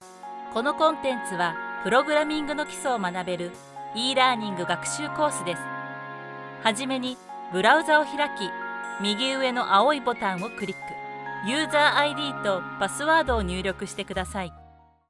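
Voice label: e-Learning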